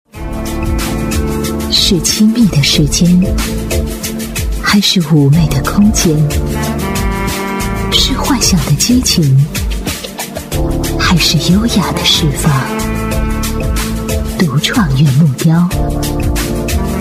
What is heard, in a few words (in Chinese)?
国语女声配音，广告 家居类 衣柜 感性品质